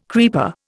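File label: "creeper", Indian English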